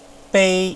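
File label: "bei1", Mandarin Chinese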